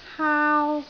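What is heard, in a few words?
"haau1", Cantonese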